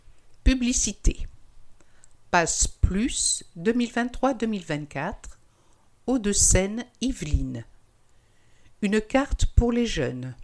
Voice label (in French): publicite; L'Abeille 50